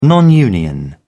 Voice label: "non union", en uk